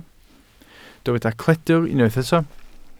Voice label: "cledr", Welsh

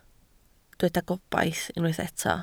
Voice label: "gobaith", Welsh

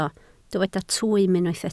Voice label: "twym", Welsh

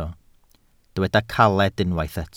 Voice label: "caled", Welsh

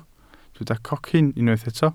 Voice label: "cocyn", welsh

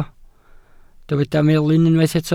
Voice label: "melyn", Welsh

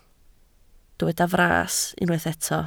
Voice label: "fras", Welsh

